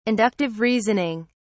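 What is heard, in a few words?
"Inductive reasoning", British English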